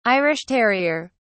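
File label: "irish terrier", British English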